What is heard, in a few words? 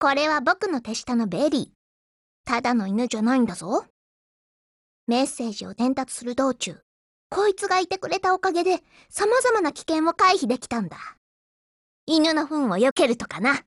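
DIALOGUE, Japanese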